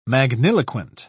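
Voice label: "magniloquent", British English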